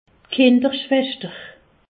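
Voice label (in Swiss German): "kinderschweschter", Alsatian; medecine; puériculture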